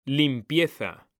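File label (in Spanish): "limpieza", Spanish, male; mekanta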